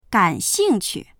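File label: "gan3xing4qu", Mandarin Chinese; 感兴趣; （興味がある）; dictionary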